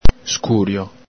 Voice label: "scurio", Veneto

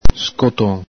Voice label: "scoton", Veneto

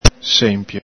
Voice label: "senpio", Veneto

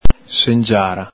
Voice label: "sengiara", Veneto

